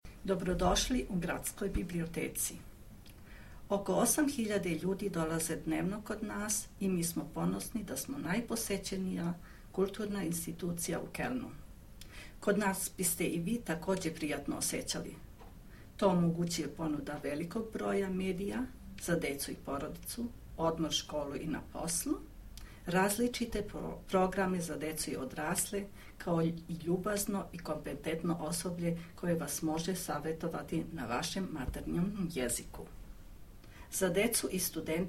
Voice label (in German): serbisch laut